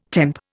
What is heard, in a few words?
"temp", Indian